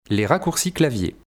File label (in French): "les raccourcis clavier", vocabulaire; vocabulaire PMTIC